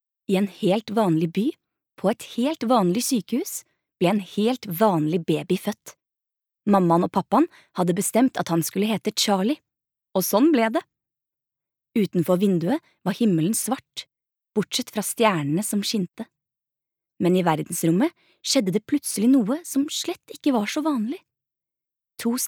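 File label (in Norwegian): barnebok, Norwegian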